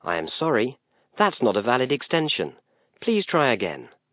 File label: invalid extension, British English; Asterisk